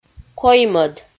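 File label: "коймӧд" Komi, female; audio dict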